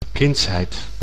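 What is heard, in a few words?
"kindsheid", Dutch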